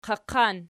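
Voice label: "qaqan" nominative, Archi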